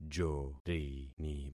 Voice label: "jorini", concat syllables; Affixing experiment

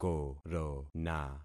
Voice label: "korona", concat syllables; Affixing experiment

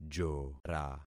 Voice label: "jora", concat syllables; Affixing experiment

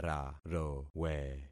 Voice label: "rarowe", concat syllables; Affixing experiment

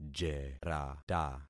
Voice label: "jerata", concat syllables; Affixing experiment

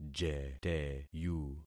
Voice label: "jeteyu", concat syllables; Affixing experiment

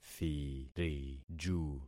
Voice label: "thiriju", concat syllables; Affixing experiment

